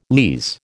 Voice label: "lees", American